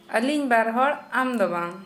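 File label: Santali, female, we2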